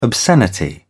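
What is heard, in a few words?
"obscenity", British English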